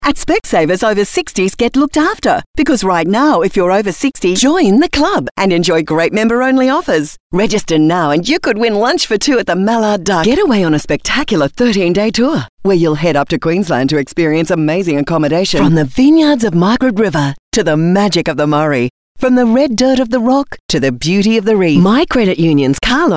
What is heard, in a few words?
Hard Sell